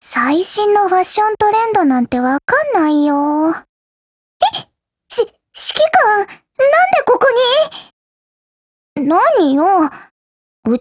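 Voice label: DIALOGUE, Japanese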